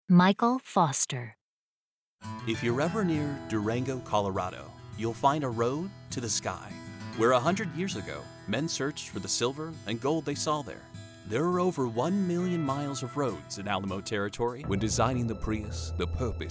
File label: Voiceover